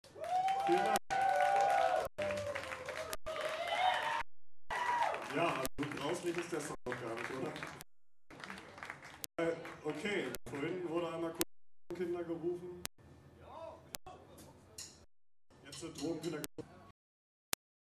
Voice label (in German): ansage